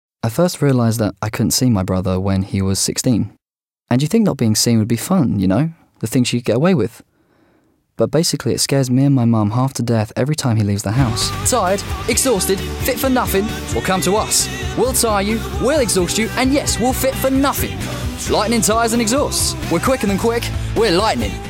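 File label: Mixed Promo Commercial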